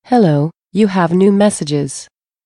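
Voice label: New Messages, female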